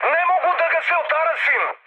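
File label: Serbian aircraft damaged mood high, speech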